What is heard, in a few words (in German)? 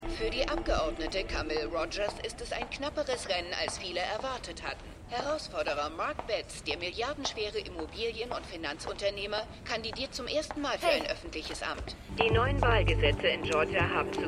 Nachrichtensprecherin; "Schau und schrei" ("Peek and Shriek"); AtlantaMedical